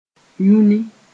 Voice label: "yũni", moore

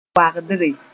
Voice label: "wagdre", Mooré; a robber

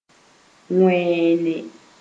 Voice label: "wẽene", Moore

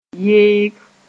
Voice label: "yeeg", moore

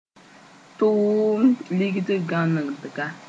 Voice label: "tʋʋmd ligd-gãnegdga", moore; estimate

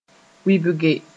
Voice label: "wɩbge", moore

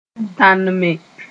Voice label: "tãnme", moore

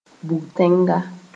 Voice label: "bug-tẽnga", moore

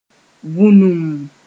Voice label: "vũnum", moore; depth

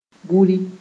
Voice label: "buri", moore

